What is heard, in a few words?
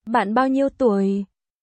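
Vietnamese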